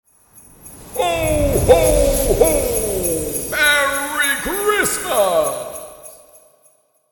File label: Merry christmas santa claus voices